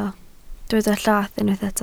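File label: "llath" Welsh, spoken word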